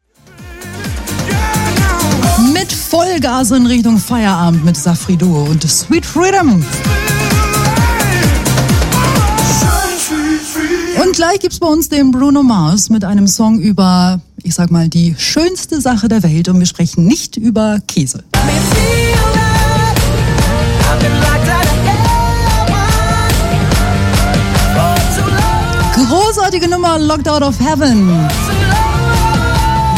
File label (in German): aircheck Musik; inselradio